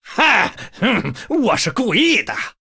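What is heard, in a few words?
Male, Chinese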